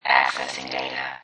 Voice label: Robot Voice Accessing Data; Lynne Music

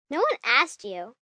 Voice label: No One Asked You, Attitude, 1 girl, 6 yrs

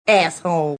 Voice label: Asshole, Attitude, Female; VoiceBox

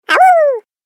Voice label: Cartoon Game Voice Awoo Little Wolf; Twistedtracks

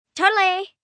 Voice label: Totally, Reaction, 1 girl, 8 yrs.; Talking Sound Effects

